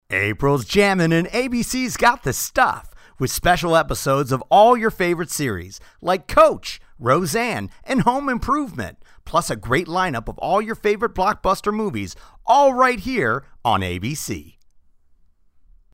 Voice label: tv promo